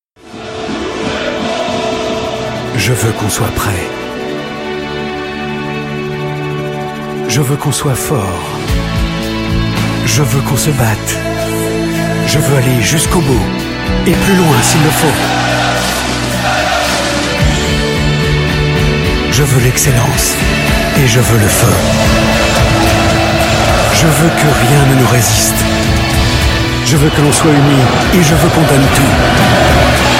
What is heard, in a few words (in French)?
Pub TV; Losc